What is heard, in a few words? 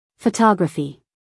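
"photography", American English, female; everyone-can-use-english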